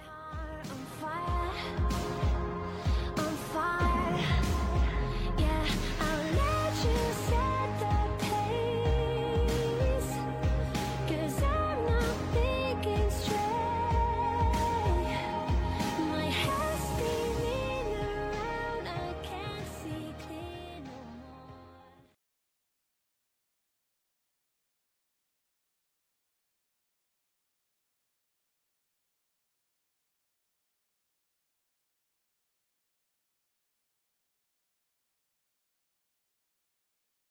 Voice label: dia dos pais namorado feminina

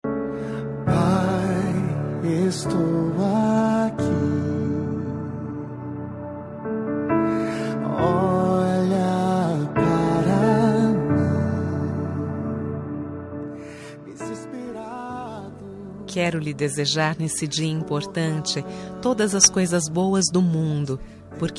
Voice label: dia dos pais feminina gospel; evangelica

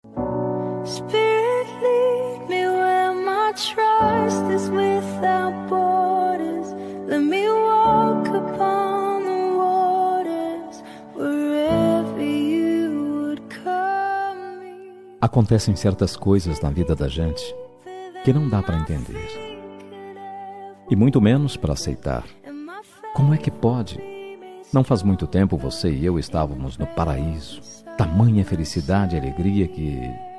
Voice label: dia dos namorados distante, voz masculina; dia dos namorados para namorada distante

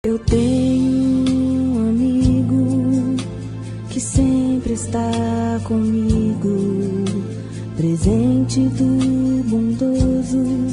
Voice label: voz infantil pai masc, Aniversário Voz Infantil – Pai – Voz Masculina; Telemensagens

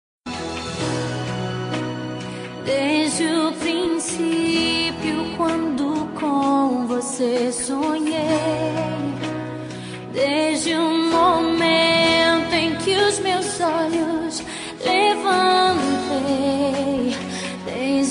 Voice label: romati masc gospel

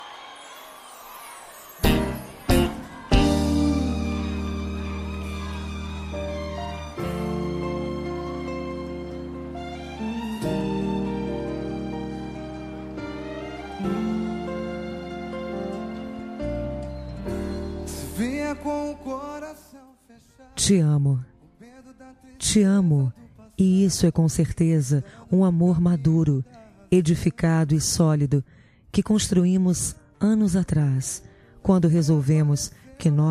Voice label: Dia dos Namorados para Marido, Voz Feminina

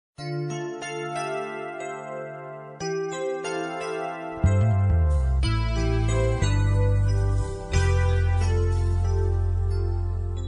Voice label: amigo gospel, Aniversário de Amigo Gospel – Voz Feminina; Telemensagens para todas as ocasiões

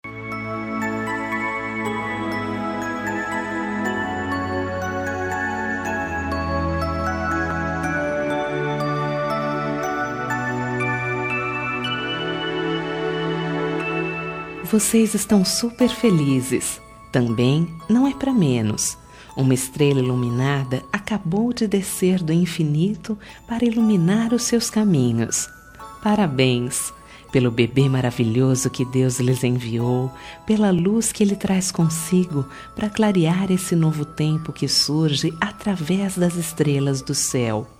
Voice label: maternidade fem, Telemensagem de Maternidade, Voz Feminina

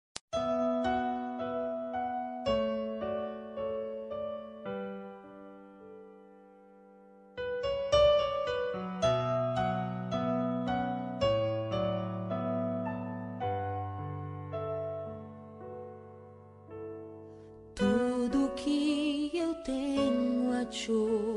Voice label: neutra gospel, Aniversário Pessoa Especial Gospel – Voz Feminina

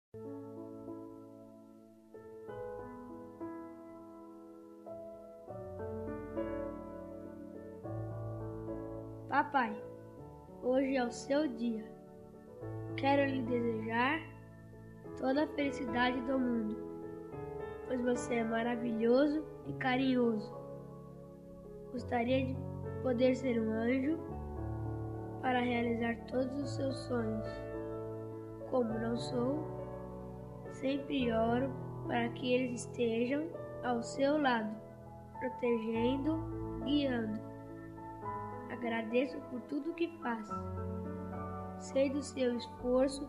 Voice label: masc infantil, voz infantil masculina; Dia dos Pais

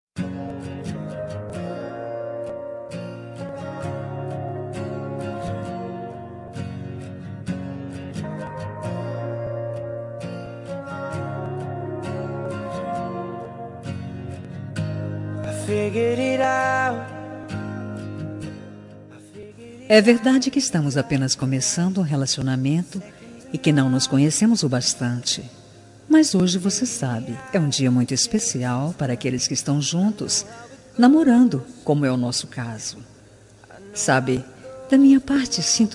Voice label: inicio fem, suave voz feminina; Dia dos Namorados

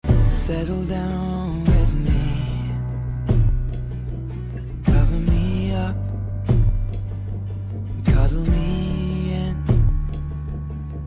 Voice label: ficante, Telemensagem Ficante – Voz Masculina

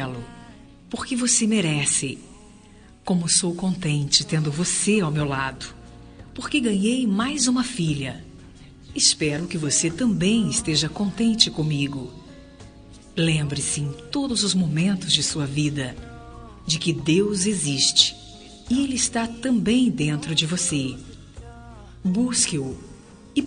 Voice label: nora fem; Telemensagens para todas as ocasiões; Aniversário de Nora – Voz Feminina

